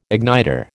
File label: "igniter", American